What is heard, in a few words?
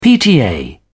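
"pta", British English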